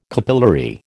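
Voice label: "capillary", American English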